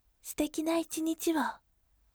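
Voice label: "sutekina ichiniti wo", gentle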